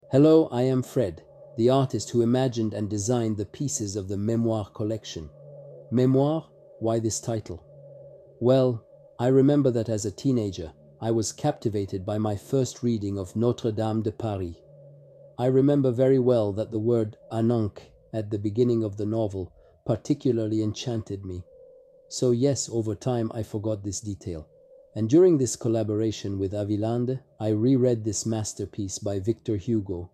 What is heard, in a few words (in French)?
francais